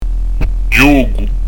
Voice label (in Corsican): "ghjocu", pronuncia; dizco grammatica